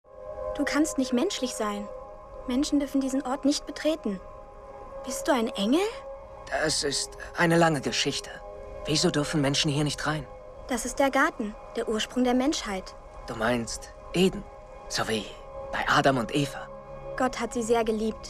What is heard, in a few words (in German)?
Synchron